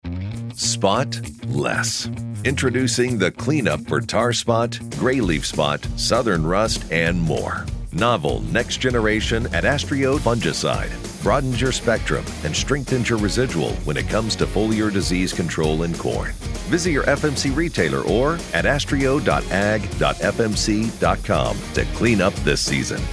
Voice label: Commercial; FMC